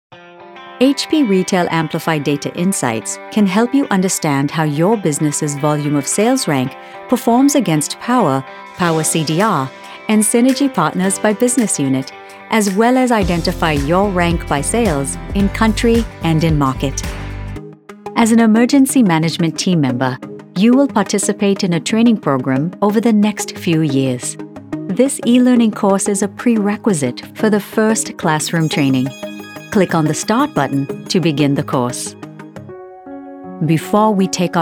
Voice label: Global Accent